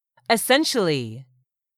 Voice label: "ESSENTIALLY", english; 3000 words

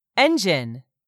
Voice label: "engine", English; 3000 words

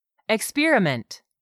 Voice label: "experiment", English; 3000 words

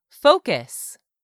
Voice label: "focus", English; 3000 words

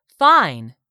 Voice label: "fine", English; 3000 words

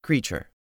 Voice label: "CREATURE", English; 3000 words

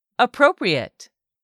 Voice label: "appropriate", english; 3000 words

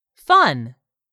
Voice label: "fun", English; 3000 words

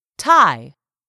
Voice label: "tie", English; 3000 words

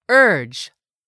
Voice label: "URGE", English; 3000 words

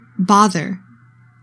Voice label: "BOTHER", english; 3000 words

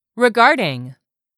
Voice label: "REGARDING", english; 3000 words

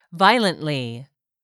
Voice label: "VIOLENTLY", English; 3000 words

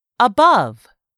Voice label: "above", English; 3000 words

